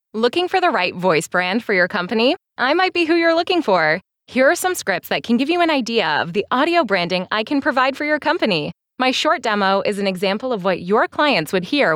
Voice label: Phone Message

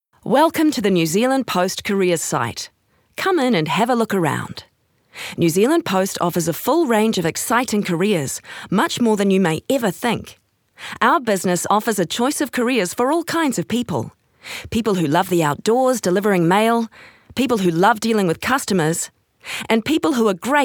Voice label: Elearning; NZ Post

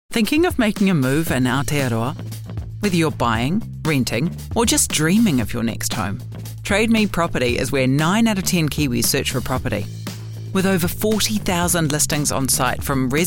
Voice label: voice NZ, en-AU

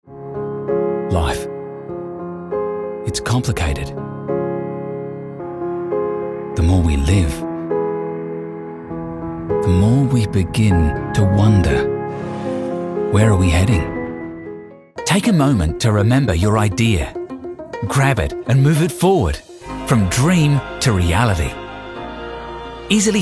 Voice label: Australian Commercial VO; reel

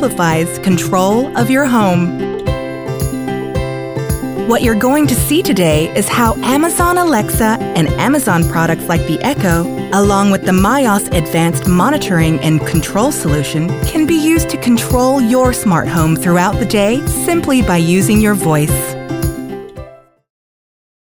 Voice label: tiny house explainer, en-NZ

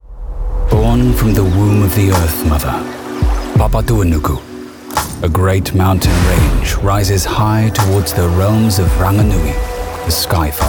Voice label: NZ Commercial; voice reel

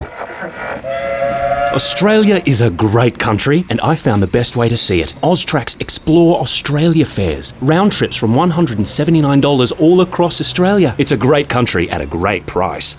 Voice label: Voice Actor; BigMouthVoices

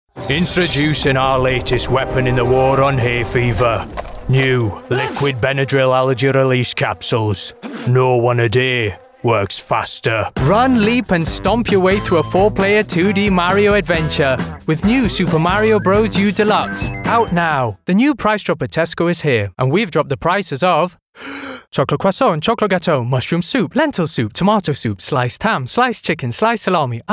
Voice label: Commercial

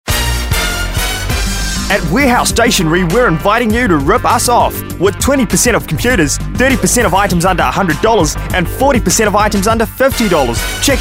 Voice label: natural; VO reel

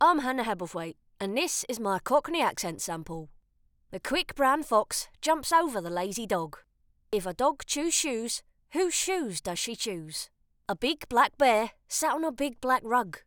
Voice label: UK Cockney Accent

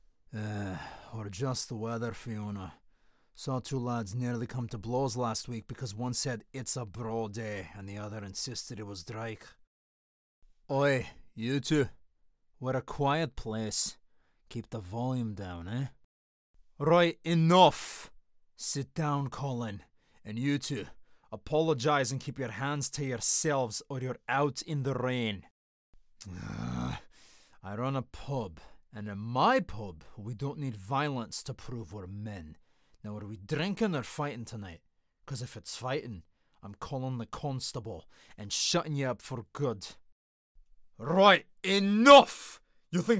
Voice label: Scottish Accent

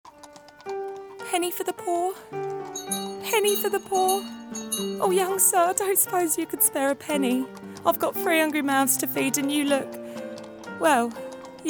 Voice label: Cockney Woman

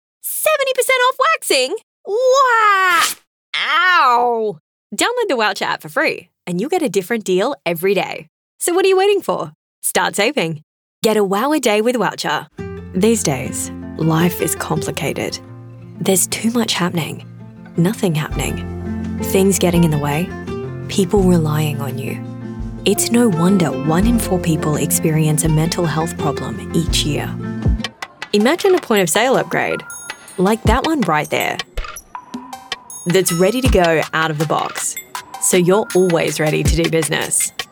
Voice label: Australian

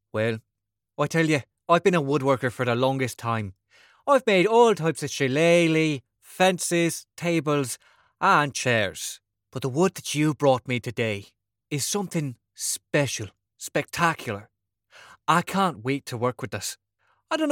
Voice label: Irish

